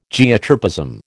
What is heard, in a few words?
"Geotropism", American